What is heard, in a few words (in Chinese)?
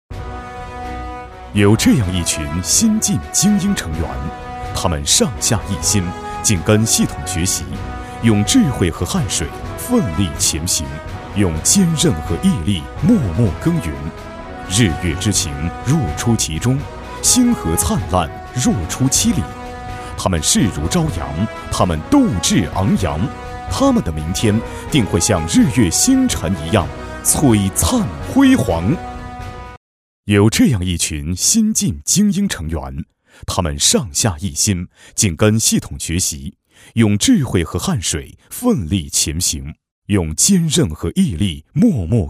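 男【颁奖】; 精英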